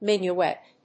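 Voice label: "minuet", English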